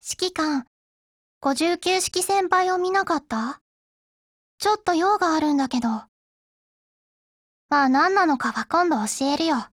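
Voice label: HELLO, Japanese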